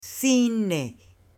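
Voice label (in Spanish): "CINE", Spanish, female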